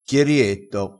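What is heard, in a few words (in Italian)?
"chierietto", dialettale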